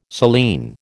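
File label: "saline", American English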